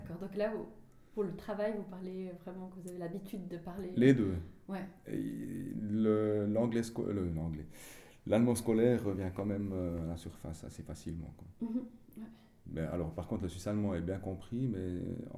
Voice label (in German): hochdeutsch; que signifie le mot dialecte en suisse